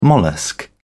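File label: "mollusc", British English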